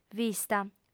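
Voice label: "vista", Sardinian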